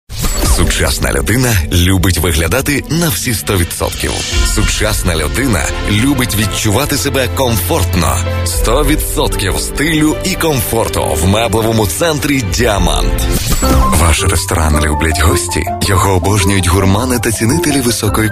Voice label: Ukrainieciu balsas, vyras

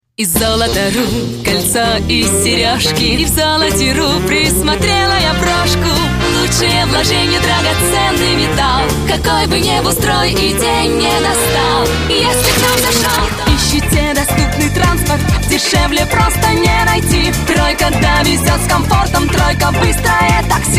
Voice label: (MOT) Rusiškas balsas

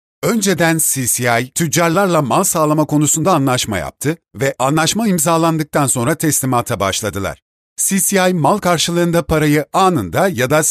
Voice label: VYR Turku balsas, vyras

